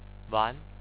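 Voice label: "wan", Chinese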